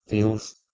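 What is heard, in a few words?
"dheus", Proto-Indo-European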